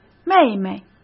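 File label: "妹妹", Mandarin Chinese; hsk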